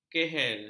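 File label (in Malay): "kehel", Malay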